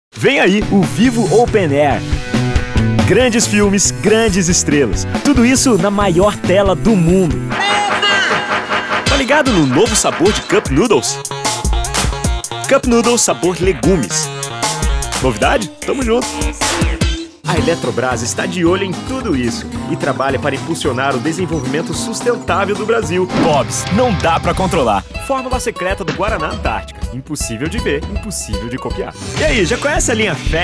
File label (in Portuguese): Repertório Jovem